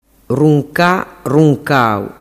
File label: "runca runcau", dialettu